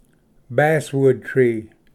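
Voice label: "basswood tree", English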